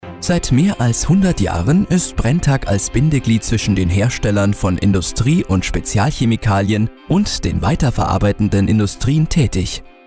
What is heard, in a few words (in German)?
Neutral